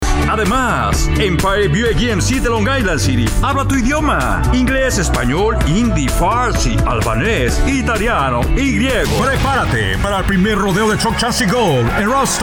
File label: Spanish